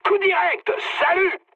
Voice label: bomb success mood med, speech fr; War Thunder